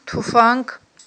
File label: "туфанг", Aghul